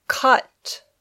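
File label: "cut", English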